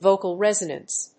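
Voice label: "vocal resonance", English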